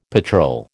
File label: "patrol", American